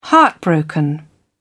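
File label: "heartbroken", British English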